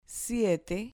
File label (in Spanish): "siete", Spanish